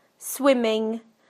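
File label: "swimming", British English; PictureDictionary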